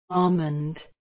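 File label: "almond", British English, female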